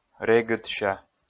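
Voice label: "регыдся", Komi, male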